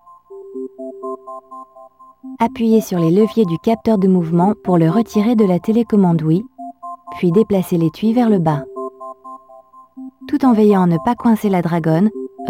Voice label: Disconnecting Motion Plus (French); Skyward Sword Original Soundtrack